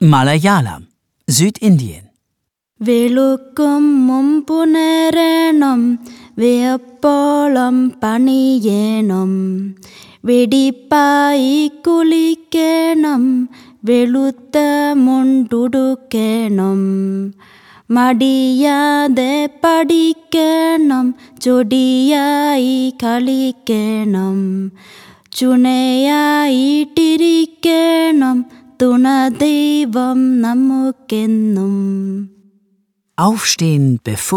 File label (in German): Malayalam Suedindien, kinderverse